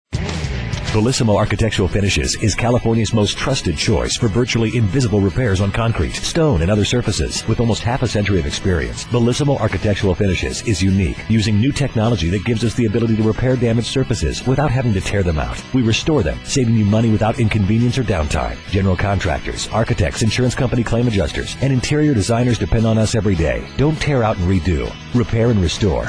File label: Commercial